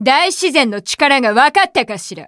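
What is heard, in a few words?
victory, Japanese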